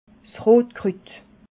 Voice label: "rotkrut", alsace

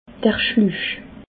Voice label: "schluch", alsace

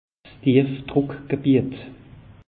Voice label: "dief druck gebiet", alsace; meteo

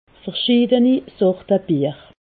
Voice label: "verschiideni", alsace

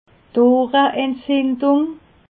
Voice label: "ohrenentzindung", alsace

